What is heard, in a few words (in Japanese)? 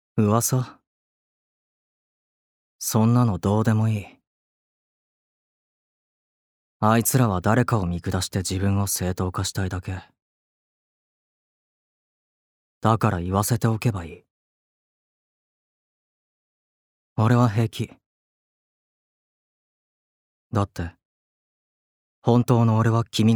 アニメ